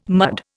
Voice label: "mud", Indian